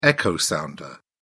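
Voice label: "echo sounder", British English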